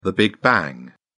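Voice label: "big bang", English, British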